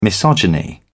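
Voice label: "misogyny", British English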